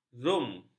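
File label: "zum", Malay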